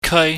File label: "keui", chinese